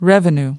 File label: "revenue", us